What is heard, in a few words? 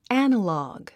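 "analog", English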